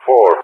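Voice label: "4", English speech; Digits; Allied Flight; DCS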